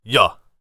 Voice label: warrior conversation emotion agree

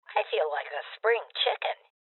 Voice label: Confirmation, Female Old; rebel cops 2019 gamerip

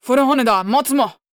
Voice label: VO angry

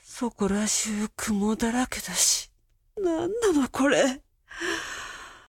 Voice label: Awaken Babble; Zara

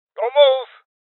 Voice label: Voices Freeze Male Old; Rebel Cops

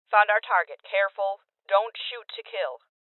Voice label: Female

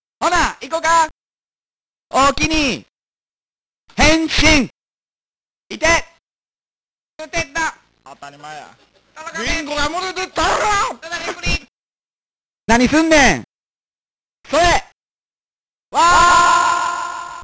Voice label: Japans Voice; psycho soldier; msx; Sie Kensou

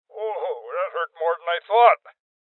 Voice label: Voices Armor Shot Male Old

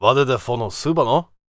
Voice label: warrior conversation emotion question

